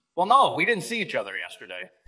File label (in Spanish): Voice Acting es M